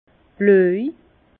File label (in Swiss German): "bloj", Bas Rhin; vin; bleu